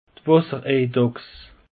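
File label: "wassereidachs", Alsatian, Bas Rhin; peche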